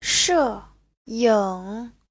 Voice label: "she4ying3", Chinese; 摄影 : chụp ảnh; Giáo trình Hán ngữ